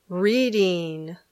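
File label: "reading", English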